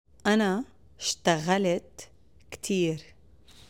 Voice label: Past tense Arabic